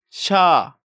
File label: "sya", Korean